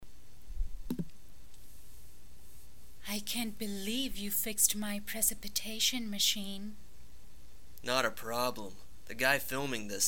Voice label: Cheesy Read; DORITOS